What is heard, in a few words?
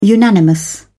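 "unanimous", British English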